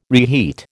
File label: "reheat", American